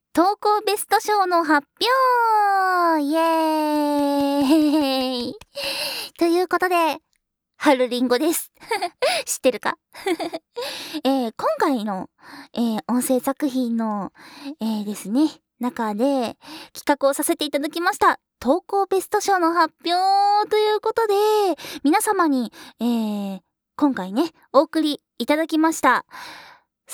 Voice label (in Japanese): ベスト投稿賞発表, ASMR